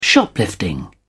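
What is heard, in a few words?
"shoplifting", British English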